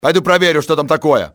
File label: im going to check, russian soldier; XBOX